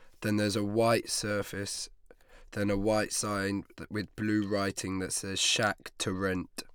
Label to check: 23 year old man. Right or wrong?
Right